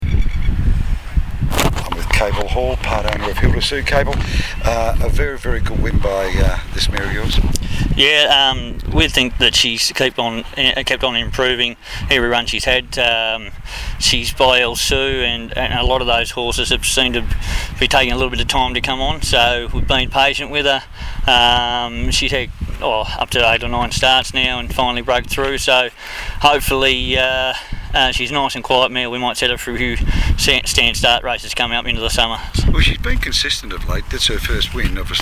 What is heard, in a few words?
interview